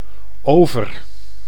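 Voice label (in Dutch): "over", Dutch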